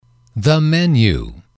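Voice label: "the menu", English, male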